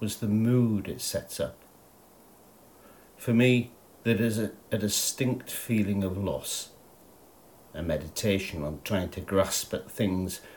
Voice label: voiceover Melting Snow